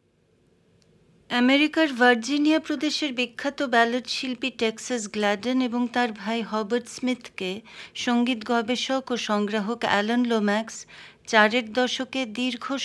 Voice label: Voice over